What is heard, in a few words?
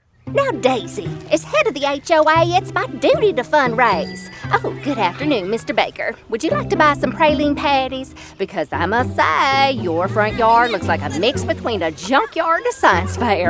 Animation HOA LADY